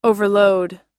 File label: "overload", us; (…に)荷を積みすぎる、(…に)(…を)積みすぎる、負担をかけすぎる